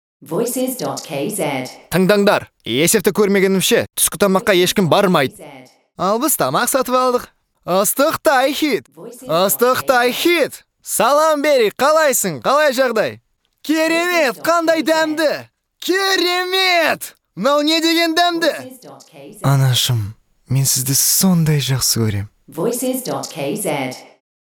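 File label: Kazakh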